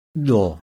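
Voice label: lateral-release voiced alveolar plosive, IPA sounds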